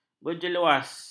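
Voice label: "berjeluas", Malay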